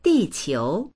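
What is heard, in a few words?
"di4qiu2", Mandarin Chinese; 地球; dìqiú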